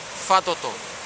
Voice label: "fatoto", futuna aniwa; Canoe

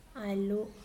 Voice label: "walk", Mokilese

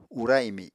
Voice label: "ura imi", Apatani

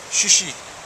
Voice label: "shishi", futuna aniwa; Canoe

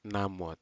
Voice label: "namut", Aneityum; bushes

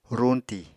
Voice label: "ruñtì", Apatani

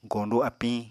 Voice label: "goñdu apiñ", Apatani

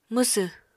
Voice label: "mwsw", Apatani

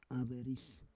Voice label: "averis", Roon

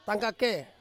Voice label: "kake", Ansus

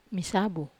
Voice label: "masabu", Wamesa

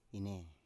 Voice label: "inei", Biak

